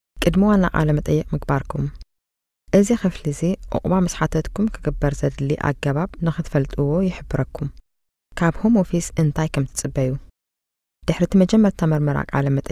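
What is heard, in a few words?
Tigrinya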